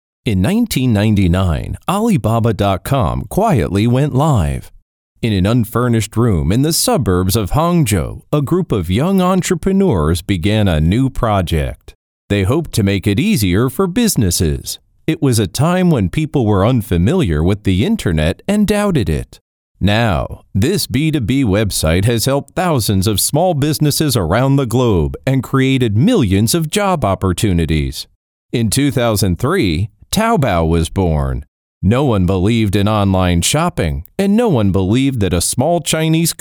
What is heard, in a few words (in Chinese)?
外籍英男 干音; 阿里巴巴